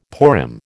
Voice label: "Purim", American